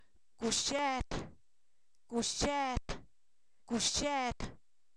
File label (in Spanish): Zapoteco, san miguel albarradas; animales; saltamonte; INALI